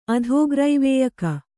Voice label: "ಅಧೋಗ್ರೈವೇಯಕ", kannada

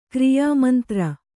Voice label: "ಕ್ರಿಯಾಮಂತ್ರ", Kannada; kriyā mantra; noun

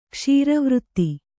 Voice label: "ಕ್ಷೀರವಾರಿಧಿ", kannada

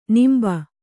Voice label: "ನಂಬಿ", Kannada

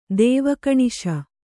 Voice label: "ದೇವಕಣಿಶ", Kannada

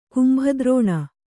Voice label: "ಕುಂಭದ್ರೋಣ", kannada

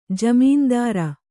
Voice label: "ಜಾಮೀನ್ದಾರ", Kannada

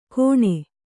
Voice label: "ಘೋಣೆ", Kannada

